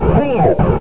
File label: go alert, combine soldier, far; hl2